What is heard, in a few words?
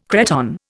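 "cretonne", Indian English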